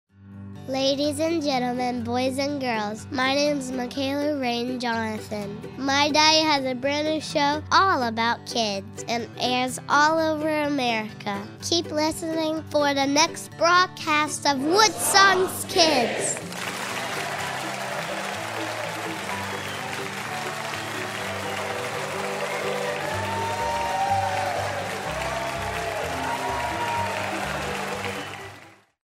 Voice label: Radio Promo; WSKIDS